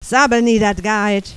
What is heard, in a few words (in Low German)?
sabbel, platt